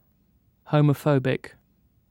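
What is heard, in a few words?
"homophobic", British English; pronunciations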